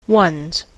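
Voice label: "one's", American English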